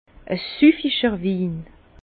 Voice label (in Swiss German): "sufficherwin", Bas Rhin; vin